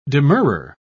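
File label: "demurrer", British English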